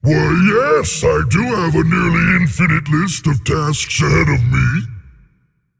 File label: Male Demon Bark; Rathorian